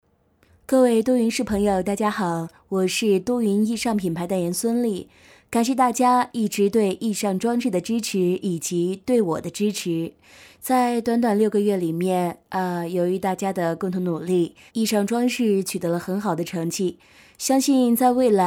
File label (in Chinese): 女 模仿, 国语女声配音; 孙俪 都灵